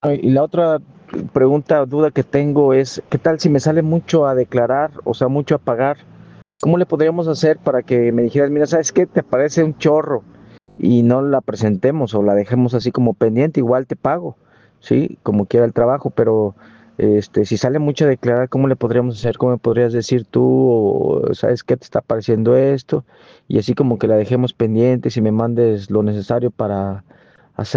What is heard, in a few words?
whatsapp ptt